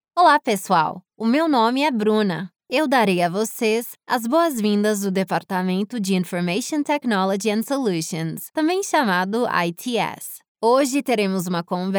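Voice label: Brazilian Portuguese, female, e-learning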